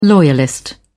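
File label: "loyalist", British English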